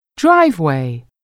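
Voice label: "driveway", English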